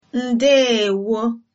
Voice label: good afternoon, igbo